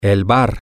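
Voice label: bar, Spanish, male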